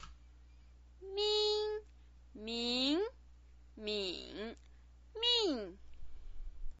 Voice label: "min", Chinese pinyin

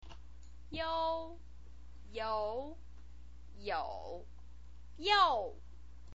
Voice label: "iou", Chinese pinyin voice

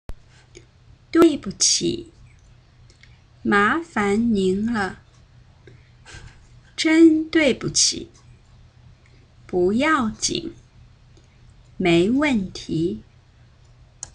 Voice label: huihua, chinese

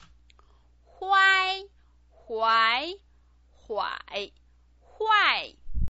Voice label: "huai", Chinese pinyin

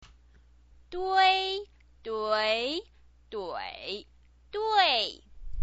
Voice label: "dui", chinese pinyin

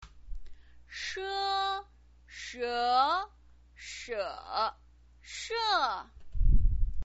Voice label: "she", chinese pinyin

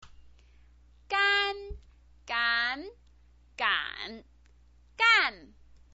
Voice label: "gan", chinese pinyin

